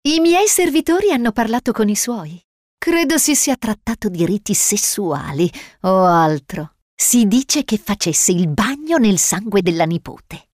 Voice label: Aristocratic Female; Dishonored